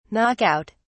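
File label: "knock out", English, British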